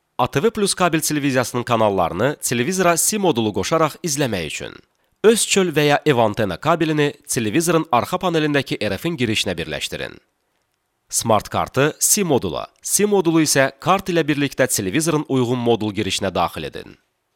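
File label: Azerbaijani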